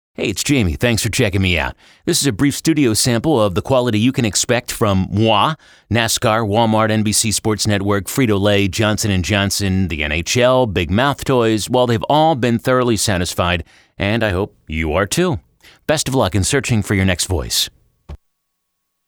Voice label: Studio Sample

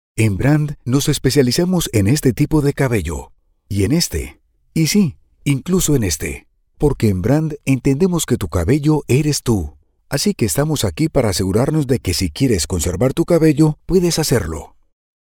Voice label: Hair Loss Treatment Commercial Spanish VO

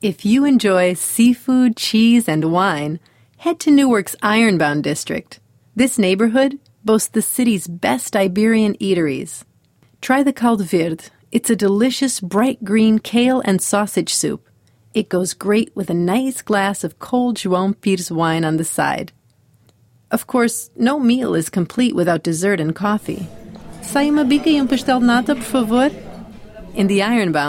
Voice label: podcast

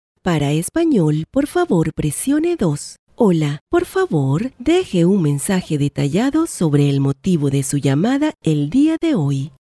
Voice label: on hold IVR

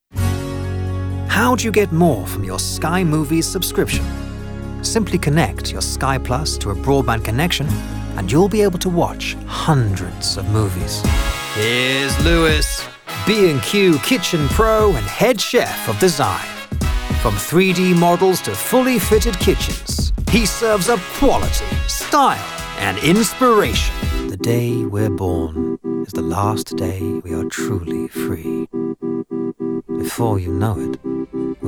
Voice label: montage commercial

